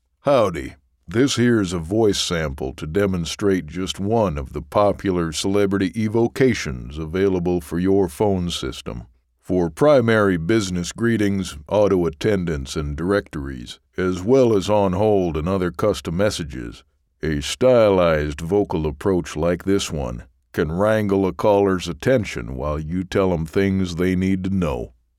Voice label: Phone System Sample